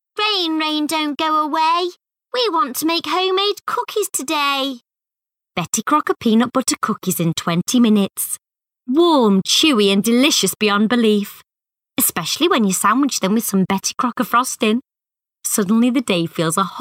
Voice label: commercials